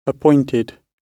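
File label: "appointed" British English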